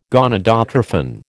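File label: "gonadotrophin", American English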